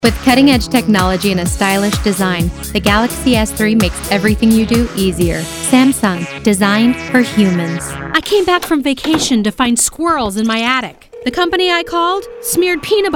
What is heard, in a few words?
commercial